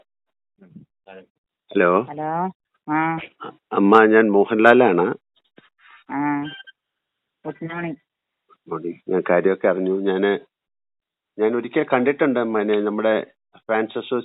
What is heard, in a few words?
Phone Call; Mohanlal